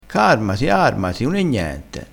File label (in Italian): "carmassi", crespinese